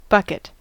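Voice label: "bucket", US English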